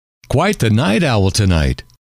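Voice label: quite the night owl tonight, male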